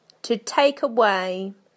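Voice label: "to take away", British English; PictureDictionary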